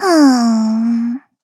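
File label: Think, Korean; voices; heroes; Taily; kings raid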